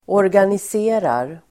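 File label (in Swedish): "organiserar", Swedish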